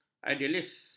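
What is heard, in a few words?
"idealis", Malay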